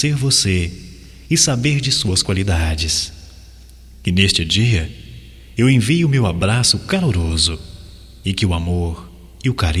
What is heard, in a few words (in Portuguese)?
AGRAD PELO PRESENTE, Só Voz, masc; Telemensagens; Telemensagens 2017